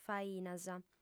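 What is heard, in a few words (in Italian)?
"fainas", lingua sarda, female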